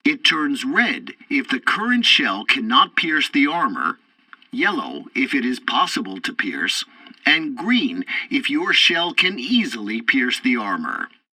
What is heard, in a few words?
tutorial tank arcade first target crosshairs, english; War Thunder